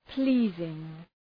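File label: "pleasing", English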